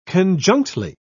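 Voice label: "conjunctly", British English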